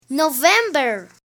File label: "November", alfabeto aeronáutico